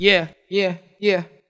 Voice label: "yeah yeah yeah", voice; ooh ahh

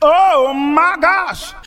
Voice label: "oh my gosh", g-rated swears; Voice; expletives

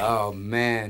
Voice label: "oh man", expletives, g-rated swears

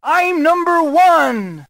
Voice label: i'm number one male; numbers and letters

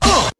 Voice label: "uh", male

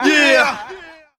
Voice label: "yeah", group of men; ooh ahh

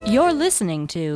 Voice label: you're listening to female; Voice; introductions

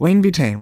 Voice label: cartoon, Voice drops

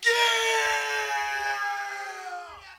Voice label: "yeah", fade

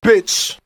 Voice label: "bitch", expletives

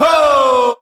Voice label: "ho" group of men; ooh ahh; ho hoo woo

